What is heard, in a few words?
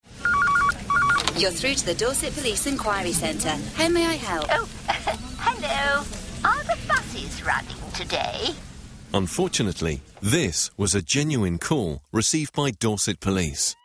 campaign advert